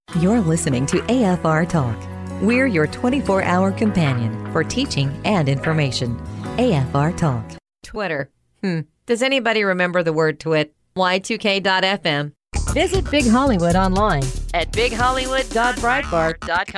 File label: Imaging